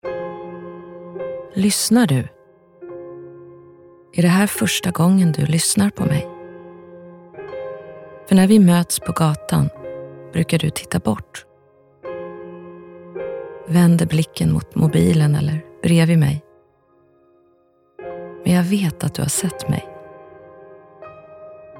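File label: Swedish, female, karaktar; character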